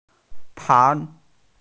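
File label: "pan2", Mandarin Chinese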